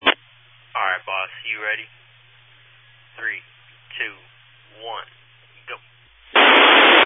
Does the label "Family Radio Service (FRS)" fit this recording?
Yes